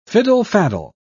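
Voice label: "fiddle-faddle", English, British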